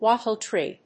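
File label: "Wattle Tree", English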